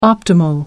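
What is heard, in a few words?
"optimal", British English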